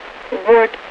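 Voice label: "board", English; Mi-8MT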